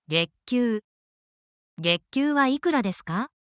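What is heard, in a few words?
Japanese word, essential Japanese phrases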